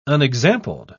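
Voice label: "unexampled", British English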